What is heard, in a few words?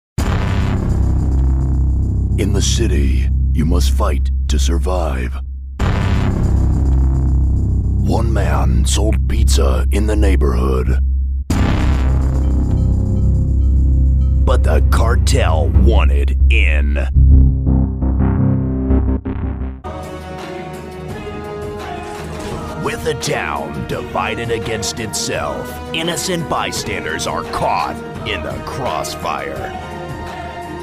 Promos, Men; Trailers